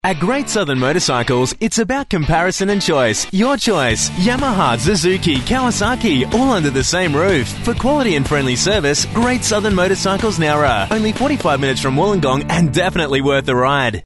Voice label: radio sample